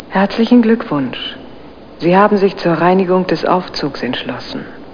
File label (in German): herzlich; It's like a dream. You have to be a little more careful.